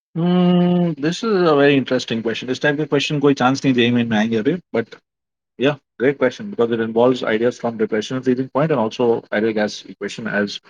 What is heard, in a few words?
voice message